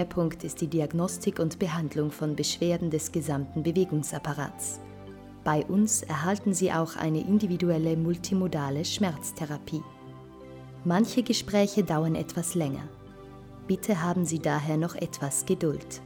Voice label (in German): Begrüßungstelefontext Ordination